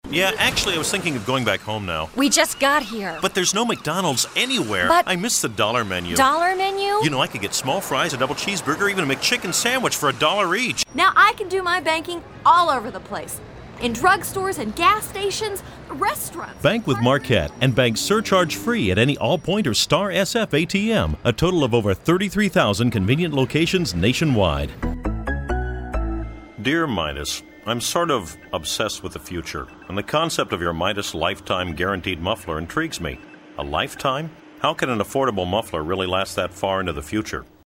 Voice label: Radio Commercials